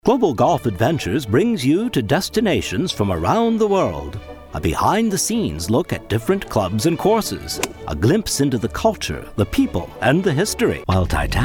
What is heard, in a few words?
Promo